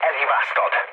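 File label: Hungarian bomb failure mood low, speech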